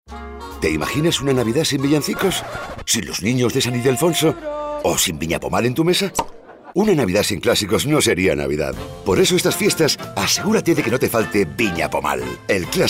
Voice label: male spanish champagne comercial

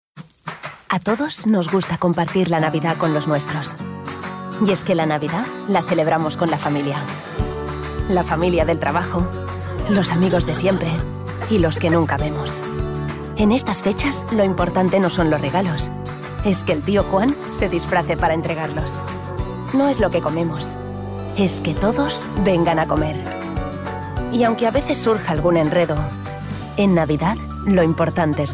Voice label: female spanish optic comercial

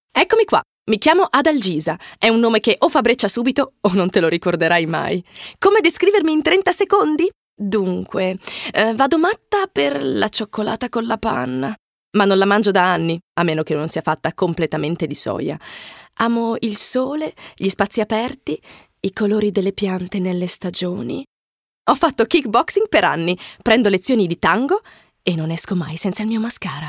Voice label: female italian comercial

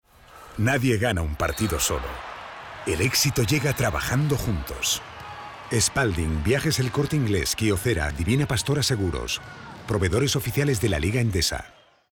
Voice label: male spanish comercial; ideasonora

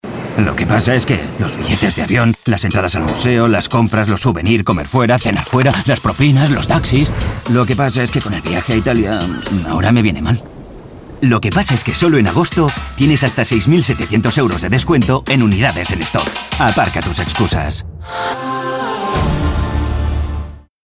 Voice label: male spanish car comercial

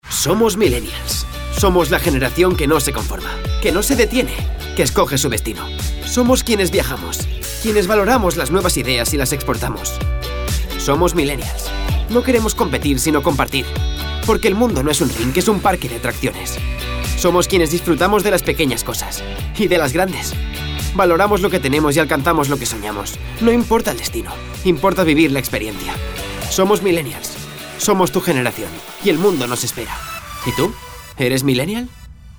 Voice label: male spanish milenial comercial; ideasonora

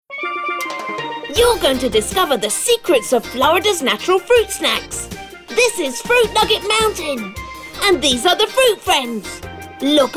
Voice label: female british english kids comercial; ideasonora

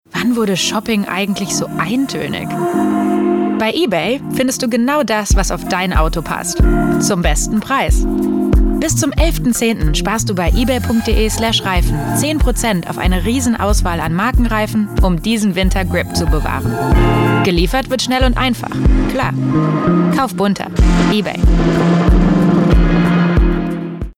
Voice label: female german comercial

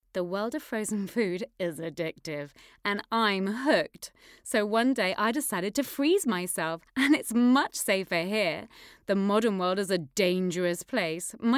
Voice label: female british english commercial

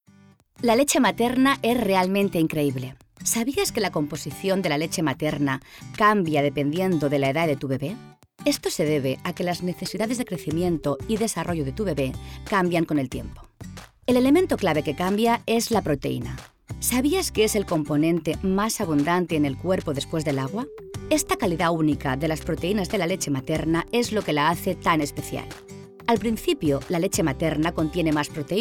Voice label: female spanish comercial; ideasonora

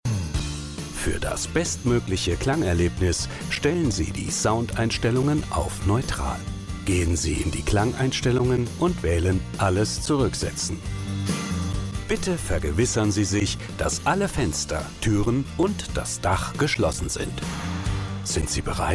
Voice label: male german comercial; ideasonora

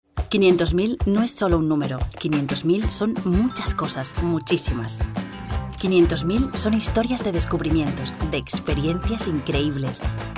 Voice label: female spanish brand comercial

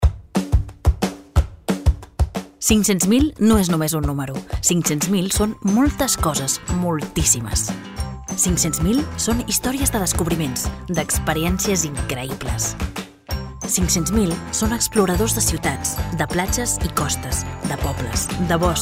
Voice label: female catalan brand comercial

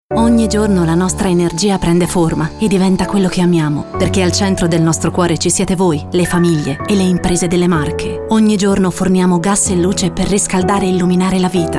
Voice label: female italian comercial; ideasonora

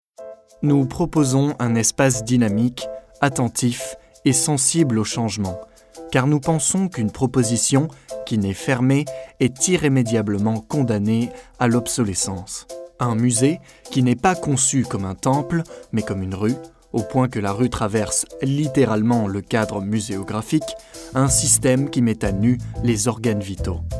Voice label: male french museum comercial

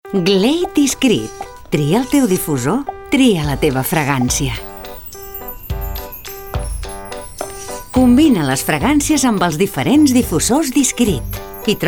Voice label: female catalan spray comercial; ideasonora

